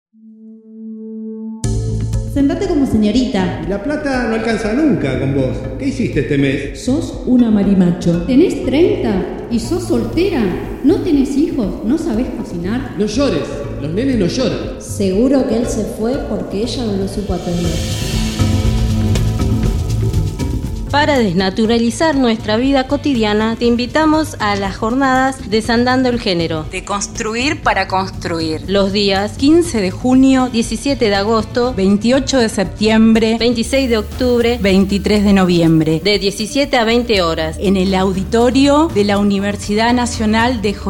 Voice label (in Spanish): SPOT MUSICA LIBRE